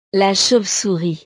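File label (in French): "la chauve souris", French; el murciélago; animales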